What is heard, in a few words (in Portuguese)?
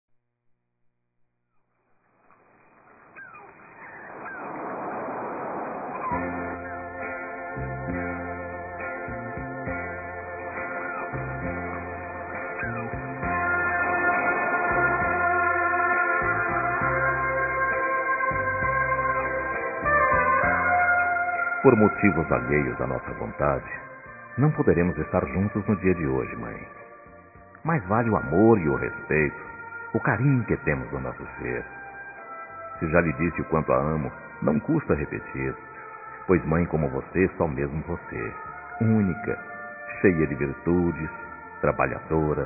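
Dia das Mães Distante Masculino; Telemensagens 2017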